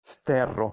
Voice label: "sterro", Proto West Germanic

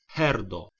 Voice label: "herdo", Proto-Germanic

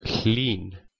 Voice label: "hlin", Proto Germanic

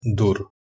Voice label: "dur", Proto Germanic

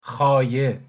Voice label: "haye", Persian

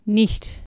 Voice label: "niht", Anglo Saxon

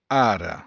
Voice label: "ara", Latin; “altar”

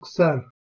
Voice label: "ser", Urdu

